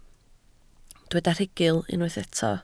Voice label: "rhugl", Welsh